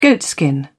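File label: "goatskin", British English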